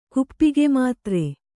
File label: "ಕುಪ್ಪಿಗೆಮಾತ್ರೆ", kannada